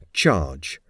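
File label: "charge", English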